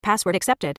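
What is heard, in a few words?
English Password Accepted; EFI Audio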